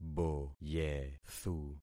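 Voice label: "boyethu", concatenated syllables; Affixing experiment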